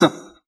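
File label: "huh", vocal sample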